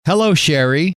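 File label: "hello", male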